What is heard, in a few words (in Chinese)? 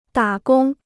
"打工", Chinese